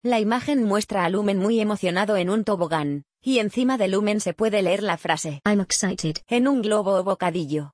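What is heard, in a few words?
"I'm excited", English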